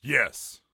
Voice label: Yes, Soldier Male; Soldier Male 03